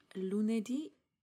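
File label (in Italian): "lunedi", Italian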